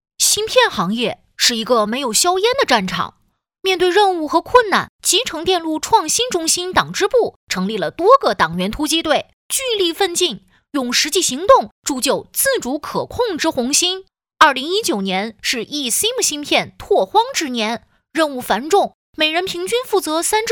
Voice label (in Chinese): 男童动画; 红小芯黄